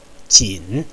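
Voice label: "jin", Mandarin Chinese, third tone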